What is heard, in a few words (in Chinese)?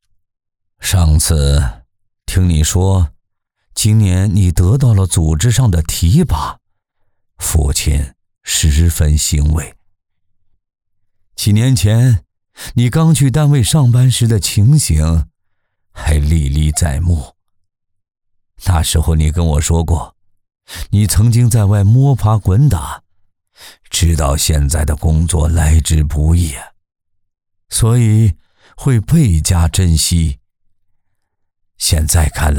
男 角色 信件全文 父亲